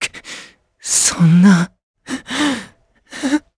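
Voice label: Vox Sad Japanese; Evan; kingsraid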